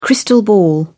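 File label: "crystal ball", British English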